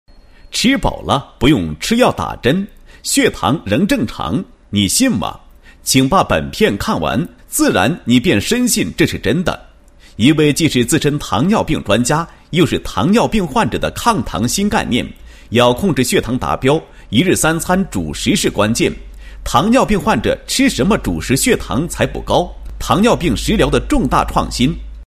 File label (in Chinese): 男声 高音 医疗; 国语男声配音